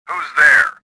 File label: suspicious; swamp